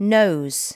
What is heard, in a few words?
"nose", L2 English